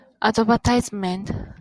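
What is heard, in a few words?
"advertisement", Japanese female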